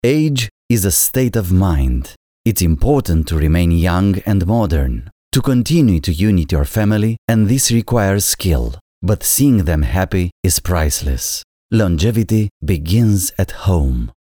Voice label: English